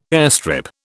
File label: "airstrip", British